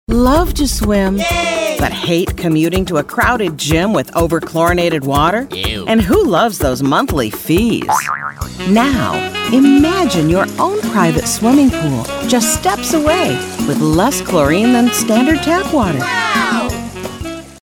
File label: narration variety lap pool explainer